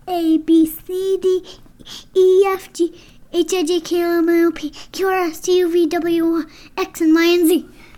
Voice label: Child's ABCs